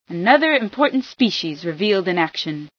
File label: important species, female